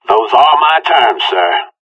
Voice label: conversation

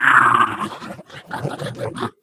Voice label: impatient; Portal 2; Source Engine; video games; ballbot

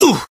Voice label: taunt exert; scout; tf

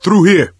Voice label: misc directional, coach, survivor voice

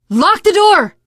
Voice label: close the door, teen girl, survivor voice

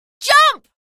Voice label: jump, female; video games; npc; source engine; episodic; episode 1

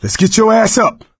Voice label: revive friend loud; voice; source engine; left 4 dead 2

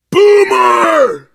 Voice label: warn boomer, player survivor voice biker; source engine; left 4 dead 2

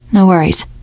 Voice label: you are welcome, teen girl

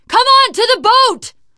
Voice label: arrive boat, teen girl, survivor voice; source engine; left 4 dead 2

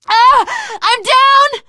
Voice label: incapacitated initial, survivor voice teen girl

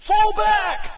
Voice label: US fall back; player; Source Engine; dod

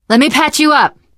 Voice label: heal other, teen girl; survivor voice

